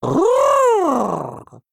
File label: Male Murloc; Errgl; Mission9b Hero Power